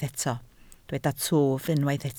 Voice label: "twf", Welsh

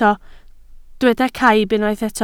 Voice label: "caib", Welsh

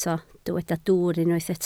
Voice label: "dwwr", Welsh

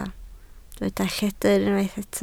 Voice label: "lledr", Welsh

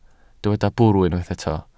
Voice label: "bwrw", Welsh

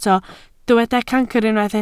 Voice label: "cancr", Welsh

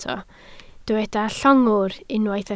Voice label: "llongwr", Welsh

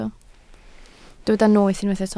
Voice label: "noeth", Welsh

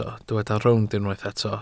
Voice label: "rownd", Welsh

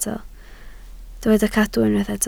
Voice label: "cadw", Welsh

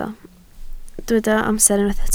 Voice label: "amser", Welsh

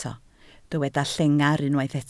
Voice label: "llengar", Welsh

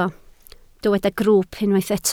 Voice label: "grwwp", Welsh

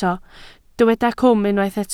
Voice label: "cwm", Welsh

